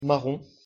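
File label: "marron", French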